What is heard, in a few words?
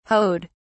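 "hoed", British English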